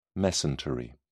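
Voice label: "mesentery", British English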